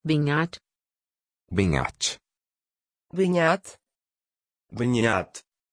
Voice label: pronunciation Beñat, Portugiesisch